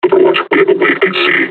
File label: taunt, combine charger; Half Life Alyx